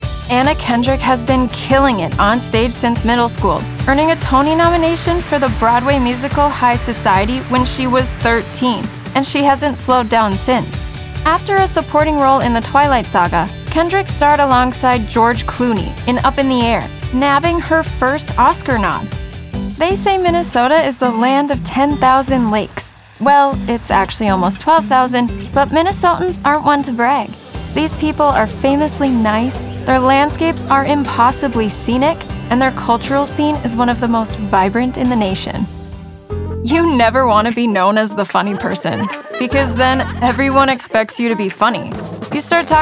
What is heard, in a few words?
Narration